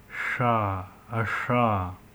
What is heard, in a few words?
Voiceless postalveolar non-sibilant fricative